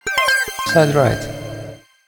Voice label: audio channel side right